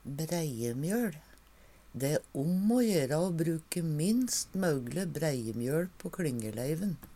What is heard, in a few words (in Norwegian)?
"breiemjøL", Numedalsmål